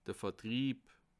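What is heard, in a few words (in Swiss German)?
"vertrieb", Bas Rhin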